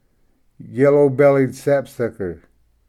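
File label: "yellow bellied sapsucker", English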